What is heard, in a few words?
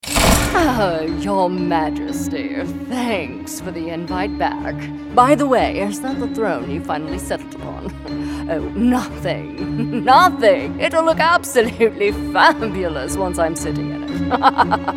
Animation VILLAIN QUEEN